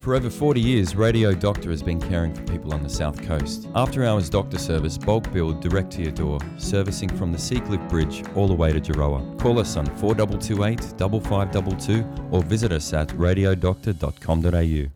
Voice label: Radio Advert; Radio Doctor